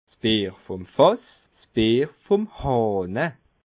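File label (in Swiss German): "fass", Bas Rhin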